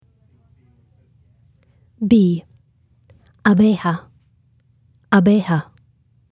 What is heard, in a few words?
bee spanish; insects-animals